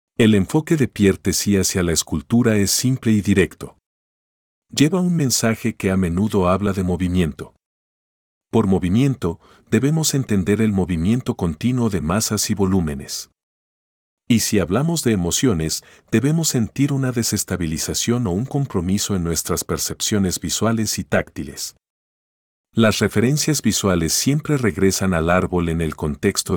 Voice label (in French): espagnol